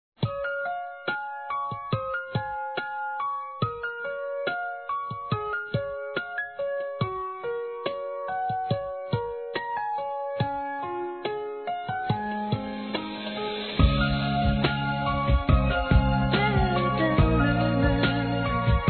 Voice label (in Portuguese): Aniversário Madrasta Masculino; Aniversários; Aniv Familiar